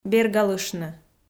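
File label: "бергалыштны", Komi-Zyrian, female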